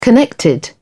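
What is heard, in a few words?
"connected", British English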